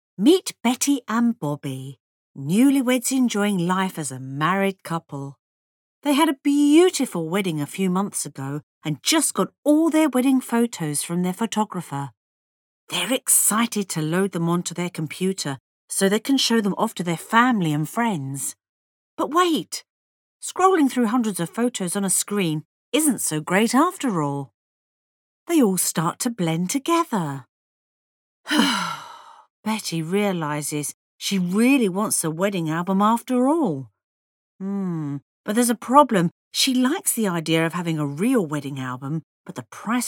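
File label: English british female; dictor; dictor-sounds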